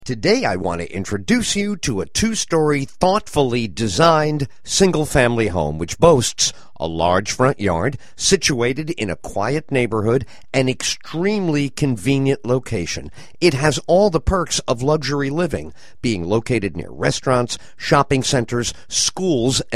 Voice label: radio